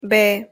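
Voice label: "b", Spanish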